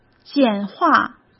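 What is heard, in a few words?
"简化", Mandarin Chinese; chinese-flashcards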